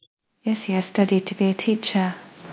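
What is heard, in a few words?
Voice Acting Spanish Female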